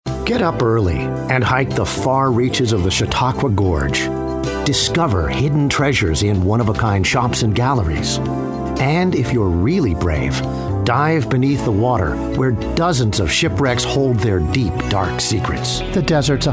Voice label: Narration, Male voices